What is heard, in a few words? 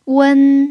"wen1", Mandarin pinyin